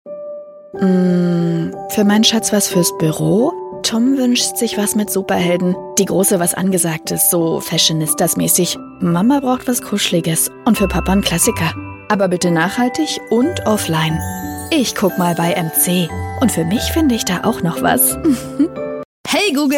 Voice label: german female voice character

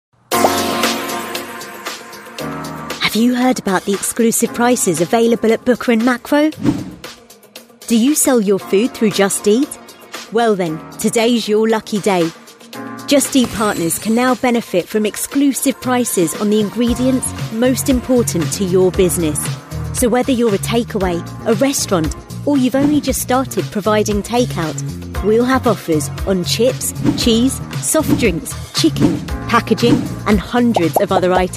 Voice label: web promo; booker; just eat

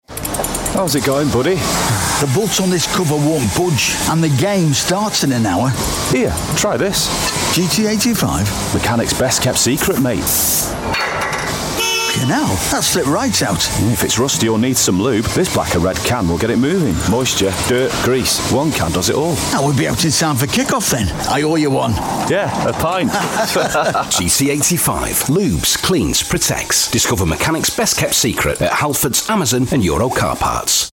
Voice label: double header conversational

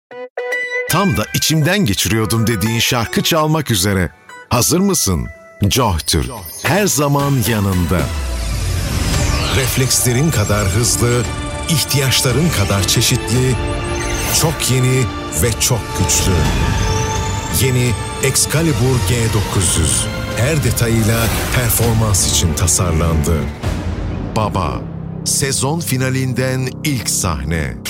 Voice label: turkish voice commercial

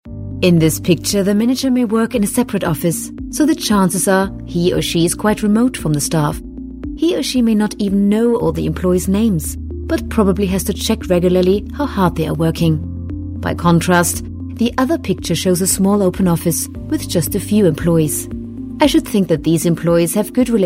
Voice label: german, corporate english

